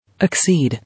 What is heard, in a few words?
"Accede", English